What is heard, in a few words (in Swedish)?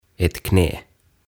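"ett knä", Swedish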